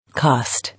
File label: "Cost", English